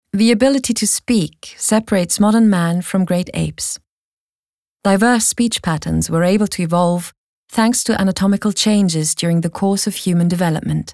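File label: British English, commentary, Neutral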